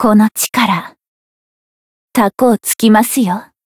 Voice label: limitbreak, jp; hoozuki

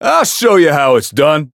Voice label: variable chant

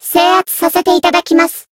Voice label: encount, jp

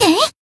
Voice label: attack, jp; hoozuki

